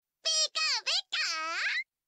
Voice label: pikachu sound effects quotes; super smash bros 3ds